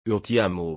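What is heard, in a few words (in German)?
Portugiesisch, "Ich liebe dich"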